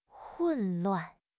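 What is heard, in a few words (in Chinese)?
"混乱", Chinese; HSK6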